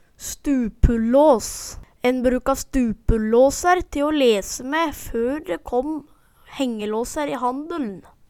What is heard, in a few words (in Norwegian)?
stupullås, Numedalsmål